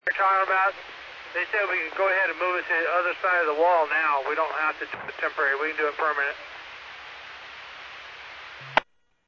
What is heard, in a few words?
Medevac, scanner; NativeAirAmb